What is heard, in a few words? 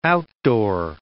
"outdoor", English word